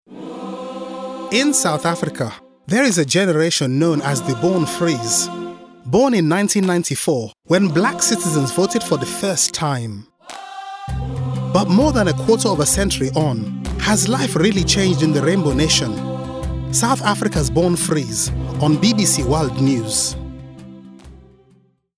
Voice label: voiceover promo; born frees